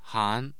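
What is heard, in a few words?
"han", Mandarin Chinese, tone 2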